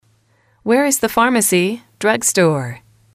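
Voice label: where is the pharmacy drug store, female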